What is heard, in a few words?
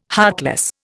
"heartless", Indian